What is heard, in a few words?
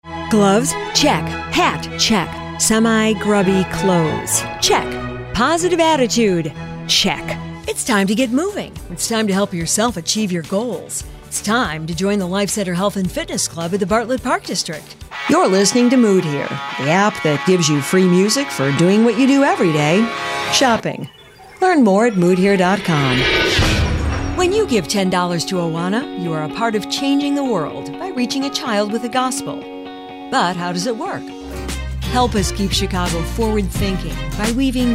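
commercials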